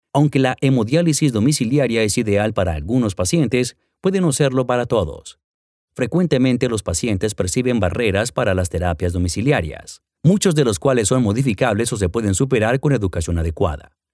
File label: spanish colombian narration